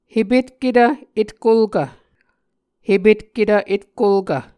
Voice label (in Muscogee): "hepetketv etkolkv", Muscogee